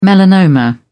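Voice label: "melanoma", British English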